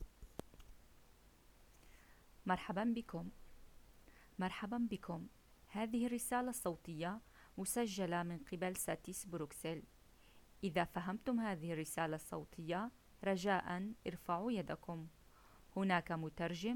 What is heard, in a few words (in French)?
Arabe classique